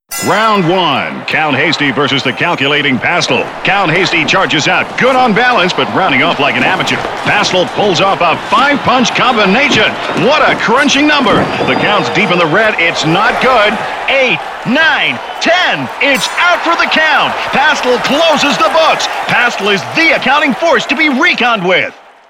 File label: Boxing Commentator